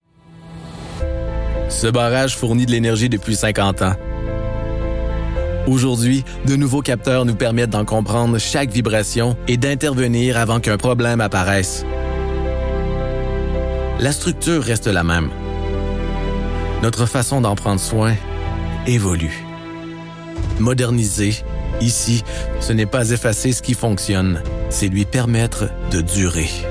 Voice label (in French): Corporatif Energie Prestigieux